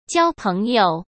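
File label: "交朋友", Mandarin Chinese; chinese-flashcards; hsk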